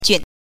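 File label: "jun", Mandarin Chinese pinyin, neutral tone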